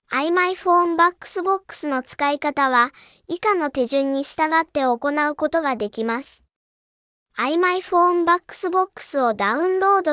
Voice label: Female